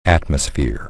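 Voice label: "atmosphere", English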